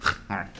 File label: Pigs Talk, Large And Moustache; fowl; Pigs Set2